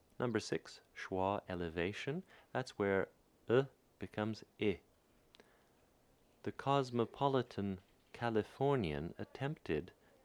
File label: rp, phonetics; speech